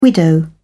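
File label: "widow", British English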